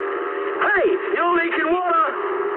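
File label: damaged water mood high, speech English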